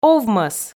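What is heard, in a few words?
"овмӧс", Komi, female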